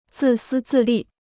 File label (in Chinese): "自私自利", Chinese